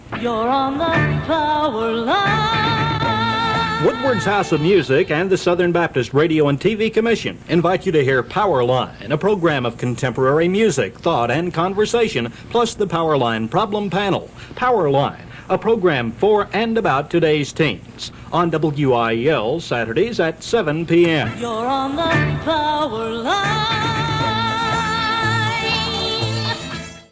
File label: Promo